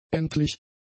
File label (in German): "endlich", german; adjectives